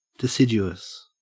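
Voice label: "deciduous", Australian English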